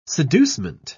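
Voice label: "seducement", British English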